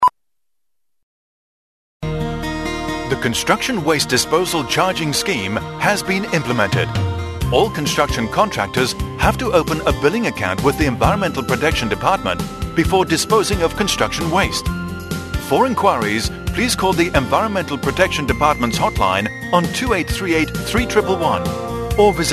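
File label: radio English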